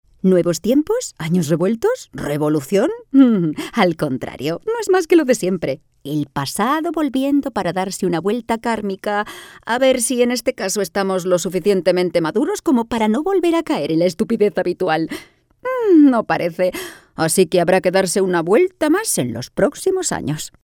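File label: Spanish Spain female upbeat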